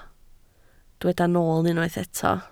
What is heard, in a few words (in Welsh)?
"nool" Welsh